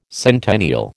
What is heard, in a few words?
"centennial", American